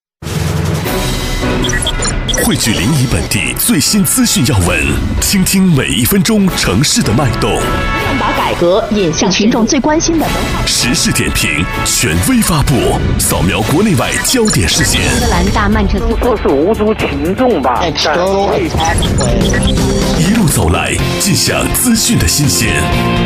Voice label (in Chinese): 节目包装, 国语男声; 《新闻路上》; 交广主播